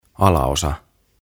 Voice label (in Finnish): "Alaosa", "Bottom"; OTAVA KONSERNI